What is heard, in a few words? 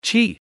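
Malay "chee"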